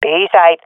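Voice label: swat female; Counter Strike; CS:GO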